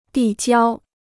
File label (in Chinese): "递交", Chinese